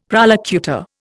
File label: "prolocutor", Indian; Dictionary